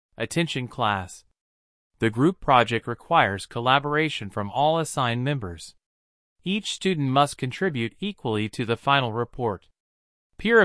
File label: announce